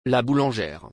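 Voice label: "La boulangère", French; نانوا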